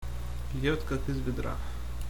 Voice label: rain, ru